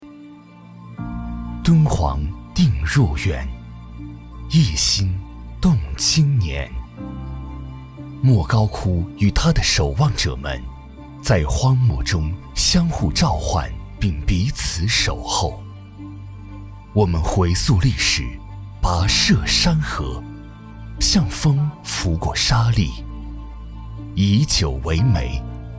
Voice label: 酒类广告; 敦煌

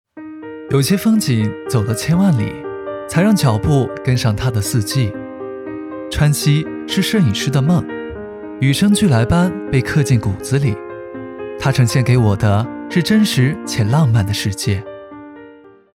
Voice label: 走心旁白

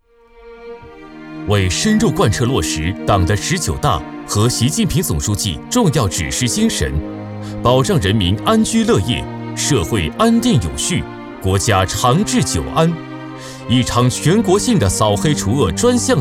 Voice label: 大气稳重; 扫黑除恶

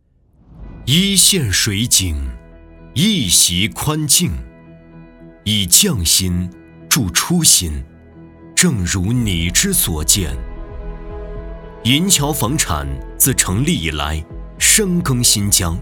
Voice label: 房地产宣传

